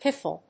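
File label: "piffle", English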